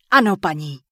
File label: Night Elf Female Yes; wowpreklad; czwow; NightElfVocalFemale